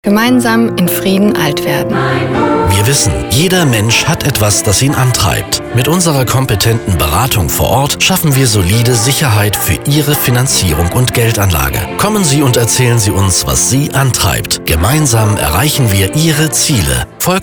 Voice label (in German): werblich mix